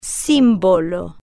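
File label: "simbolo", Spanish, female, slow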